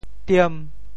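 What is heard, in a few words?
"tiem5", Teochew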